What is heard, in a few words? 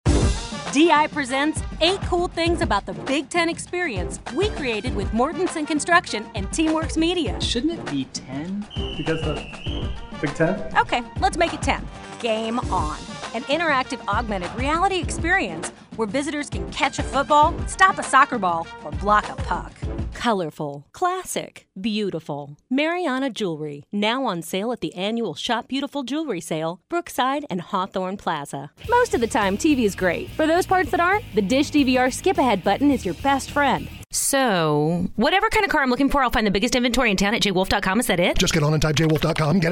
Commercial Voice